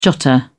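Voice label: "jotter", British English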